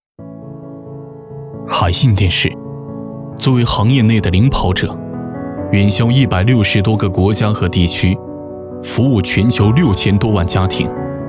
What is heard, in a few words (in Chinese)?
品质旁白; 海信电视